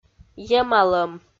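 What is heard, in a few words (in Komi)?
"емалӧм", Komi, female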